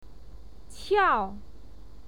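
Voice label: "qiao", Mandarin Chinese, fourth tone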